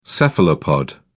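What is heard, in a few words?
"cephalopod", British English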